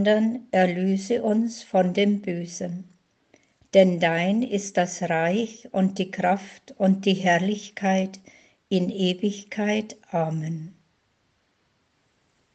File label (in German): Vater unser deutsch